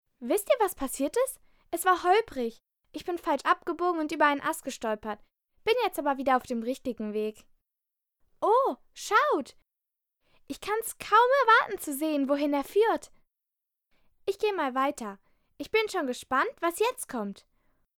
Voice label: voice over